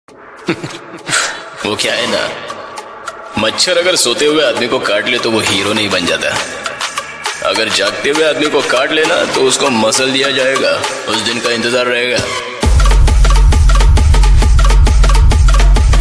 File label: Dialogue Ringtone; Maari Movie